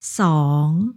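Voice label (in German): "zwei", German; zimmer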